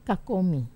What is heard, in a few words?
"kakomi", Wamesa